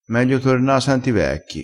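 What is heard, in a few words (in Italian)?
"meglio torna", dialettale crespinese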